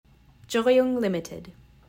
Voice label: Yugtun Choggiung, pronunciation; Yukon-Kuskokwim Delta; (choo-ghyung); Choggiung Limited; Yugtun, Dillingham